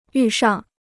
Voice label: "遇上", Chinese

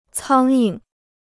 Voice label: "苍蝇", Chinese

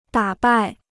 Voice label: "打败", Chinese

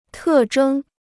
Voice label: "特征", Chinese

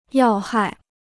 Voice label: "要害", Chinese

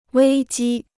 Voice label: "危机", Chinese

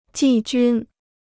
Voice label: "季军", Chinese

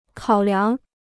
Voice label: "考量", Chinese

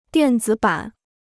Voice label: "电子版", Chinese

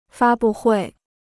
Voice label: "发布会", Chinese

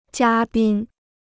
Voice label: "嘉宾", Chinese

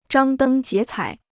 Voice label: "张灯结彩", Chinese

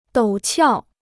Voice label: "陡峭", Chinese

